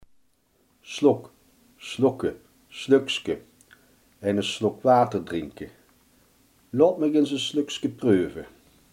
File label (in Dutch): "slok", Limburgs